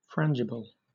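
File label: "frangible", British English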